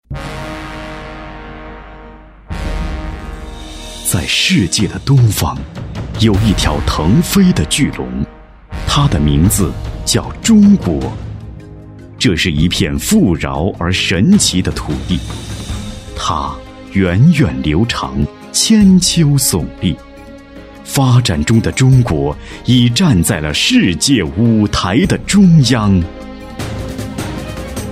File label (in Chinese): 深度浑厚古典大气底蕴